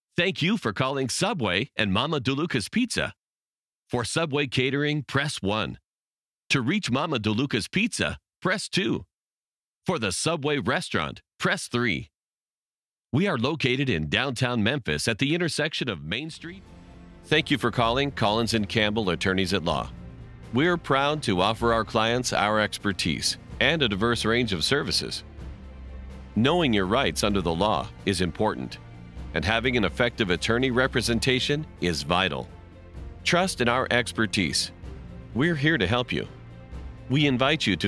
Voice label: Telephone System